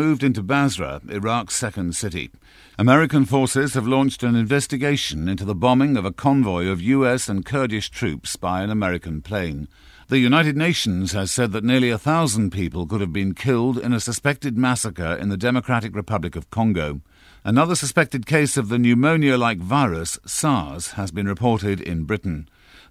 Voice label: radio News